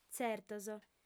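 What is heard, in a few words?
"tzertos", Sardinian, female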